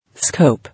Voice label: "Scope", English